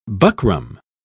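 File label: "buckram", English, British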